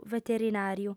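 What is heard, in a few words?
"veterinàriu", Sardinian, female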